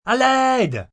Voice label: "Help", Calls, distress, male, French; foreign

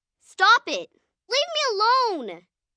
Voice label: Distress Stop It, Distress, 1 girl, 9 yrs; Exclamation Sound Effects

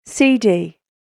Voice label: British Female Voice, recorded voice; human voice; spoken word; Recorded Voice Sound Effects

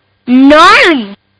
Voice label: Kid Says Gnarly; Talking; Sound Effects:Voice

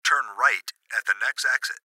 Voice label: Gps Voice, Male; Laptop Speaker Adult Male; Recorded Voice

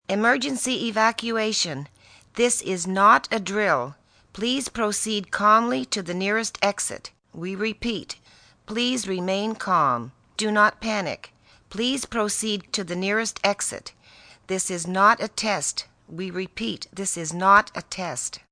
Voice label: Evacuation, PA, announcements, female; VoiceBox

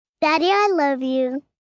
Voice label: Daddy I Love You, Endearment, 1 girl, 5 yrs; Talking Sound Effects; Sound Effects:Voice; VoiceBox

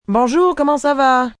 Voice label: How Are You, Greetings, female, French; VoiceBox

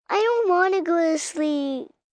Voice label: Whine Wanna Sleep, 1 girl, 5 yrs; Whining Sound Effects; VoiceBox; Twistedtracks

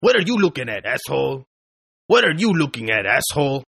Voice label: Toughguy Looking English, Tough Hispanic Male; Saying "What Are You Looking At, Asshole?" In English-2 Takes; Attitude

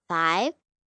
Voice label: Numbers Five, 1 child, girl counting; Talking

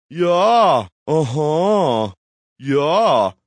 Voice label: Ya Uh Huh Ya Male, Exclamation

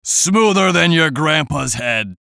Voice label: VOICE COMICAL; Smoother Than Grandpas Head; Radio Voice; Blastwave

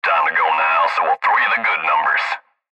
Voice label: Cb Trucker Radio; Radio Voice; Blastwave

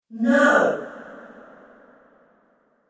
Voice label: Female Ghost Shouts No; Ghost Sound Effects

